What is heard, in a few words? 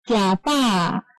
"I have eaten", Taiwanese